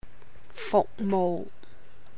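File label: "fuhk mouh", Cantonese; service; 服務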